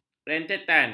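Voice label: "rentetan", Malay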